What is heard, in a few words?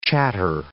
"chatter", English